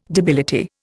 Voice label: "debility", Indian